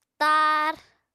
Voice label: "taar", Apatani

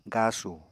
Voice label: "gasu", Apatani

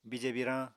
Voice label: "bije biirañ", apatani

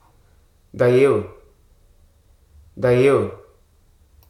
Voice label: "happen", Hruso

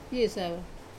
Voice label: "piesava", dont worry about it, Wamesa

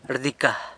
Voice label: "rdica", San Lucas Quiaviní; appears

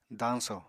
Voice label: "dañsò", Apatani

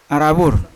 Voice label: "aravu" beads, Yawa

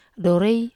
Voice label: "do re", Wamesa

